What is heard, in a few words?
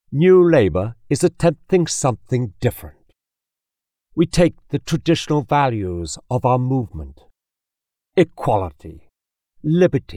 Political, Radio Commercials, Political Spots, British Male Voice; Uk Labour Party; Luxury Posh Rogue Humor